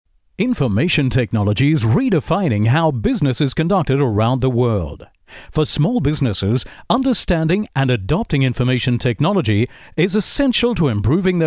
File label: Aussie English